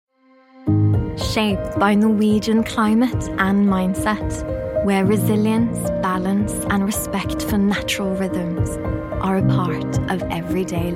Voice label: Commercial, female voices